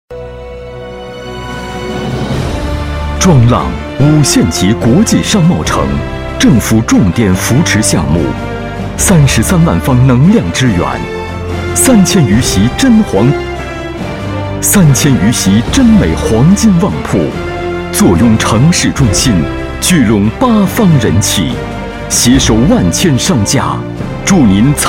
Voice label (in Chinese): 男, 专题, 大气; 五限吉国际商贸城